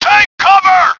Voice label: "Take cover", English; BF1942